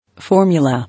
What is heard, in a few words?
"Formula", English